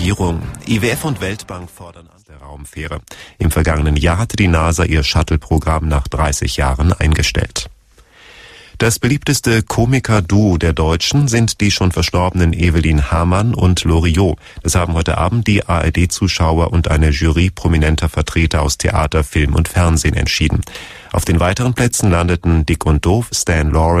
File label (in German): nachrichten